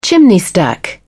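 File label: "chimney stack", English, British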